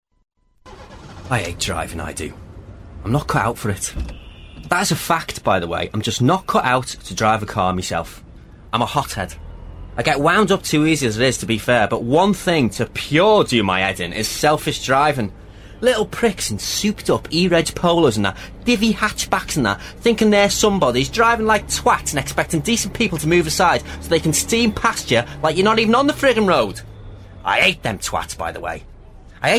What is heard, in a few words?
Narration